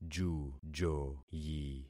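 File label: "jujoyi", concatenated syllables; Affixing experiment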